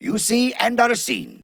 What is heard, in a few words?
Ost Male en; Cetus